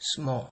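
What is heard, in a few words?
"size", Nigerian Pidgin, male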